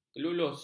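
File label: "kelulus", Malay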